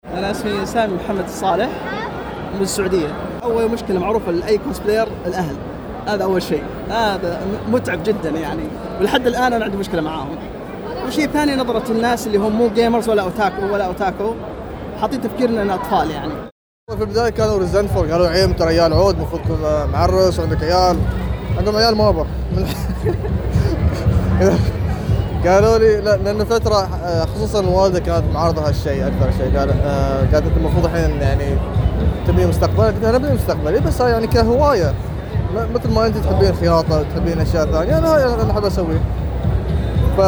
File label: Vox Pops Cosplay; الكوسبلاي-هروبٌ-من-الواقع-إلى-الخيال